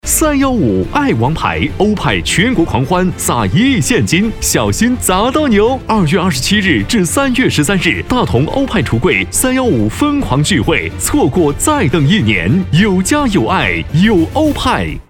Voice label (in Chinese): 男国; 欧派